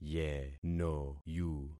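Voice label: "yenoyu", concatenated syllables; Affixing experiment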